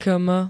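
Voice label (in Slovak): "km", Slovak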